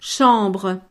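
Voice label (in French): "chambre", French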